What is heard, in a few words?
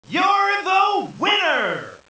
narration; kof